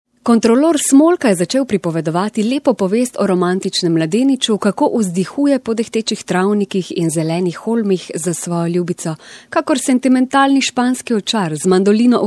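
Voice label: slovenian female narration; voice demos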